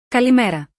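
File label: "kalimera" Greek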